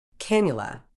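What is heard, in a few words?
"cannula", British English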